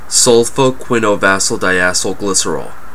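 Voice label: "sulfoquinovosyldacylglycerol", En us northern California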